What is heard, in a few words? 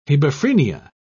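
"hebephrenia", British English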